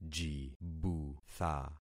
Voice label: "jibutha", concat syllables; Affixing experiment